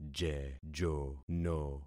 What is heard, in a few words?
"jejono", concat syllables; Affixing experiment